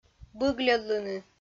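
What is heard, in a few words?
"быгльӧдлыны", Komi, female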